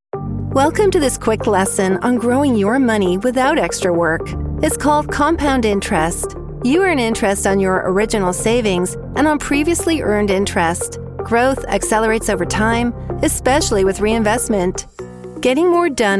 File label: Corporate Commercial Narration; Voice123